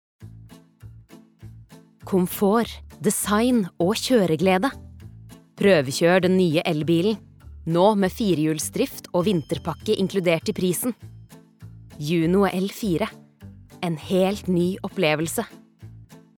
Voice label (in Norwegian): reklame, norsk, kvinne